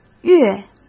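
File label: "月" Mandarin Chinese; chinese flashcards; hsk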